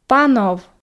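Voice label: "панов", Komi, female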